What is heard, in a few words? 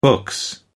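"books", British English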